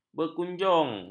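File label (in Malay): "berkunjung", Malay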